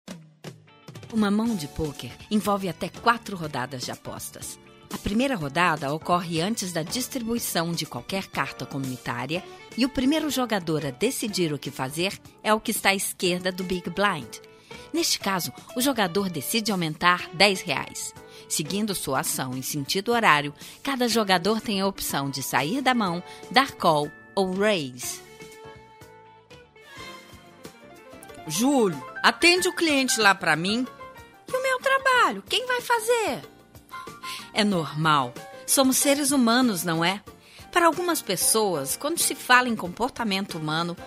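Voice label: Brazilian Portuguese, General; GoLocalise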